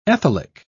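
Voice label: "ethylic", British English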